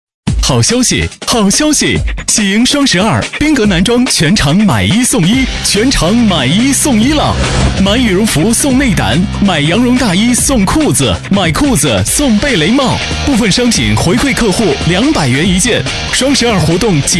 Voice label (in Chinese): 促销类广告; 宾格男装